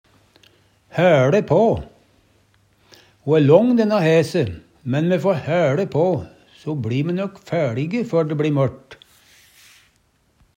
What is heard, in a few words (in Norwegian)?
haeLe pa, Numedalsmål; Ho æ lång denne hæse, men me fær hæLe på, so bLi me nøkk fæLig før dæ bli møRt.